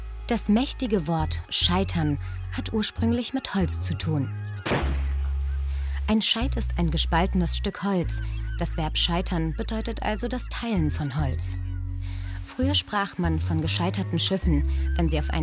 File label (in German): off, deutsch frauen; sprecher